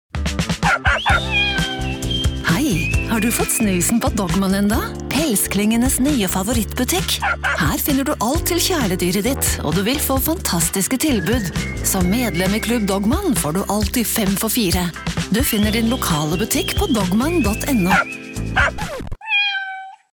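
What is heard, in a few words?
Norwegian, female, Commercial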